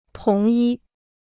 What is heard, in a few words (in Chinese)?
"同一", Chinese